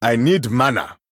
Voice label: Male Troll, ERROR NEED MANA; en

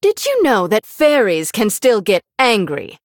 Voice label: Female Human Threaten

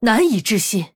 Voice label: Female Human Wow; zh

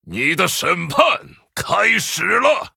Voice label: Male Human, zh

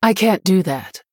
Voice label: Female Human ERROR PLAY; en

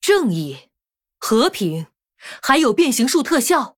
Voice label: Female Human GREETINGS; zh

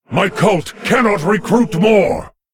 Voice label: Male Lich ERROR FULL MINIONS; en

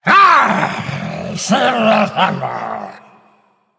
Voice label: Male Murloc PIRATE DAY; zh

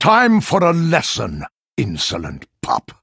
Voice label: THREATEN; HERO

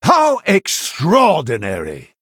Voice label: Male Human Wow; en

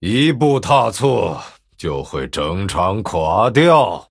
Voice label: Male Night Elf Threaten, zh

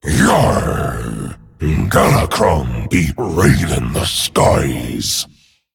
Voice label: Male Dragon, PIRATE DAY; en; 风暴巨龙迦拉克隆（英雄）; Private Day

